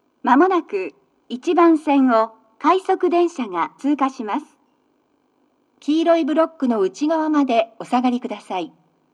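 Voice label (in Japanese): sekkin rapid pass, 接近放送 【女声】 快速電車の通過; 放送は「快速電車の通過」です。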